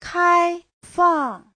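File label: "kāifàng", Mandarin Chinese; 开放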